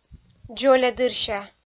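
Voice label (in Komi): "дзолядырся", Komi, female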